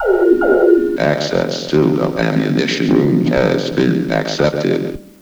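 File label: Crate Storage announcement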